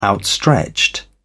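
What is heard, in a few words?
"outstretched", English, British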